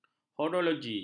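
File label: "horologi", Malay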